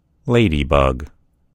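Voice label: "ladybug", American English; gcompris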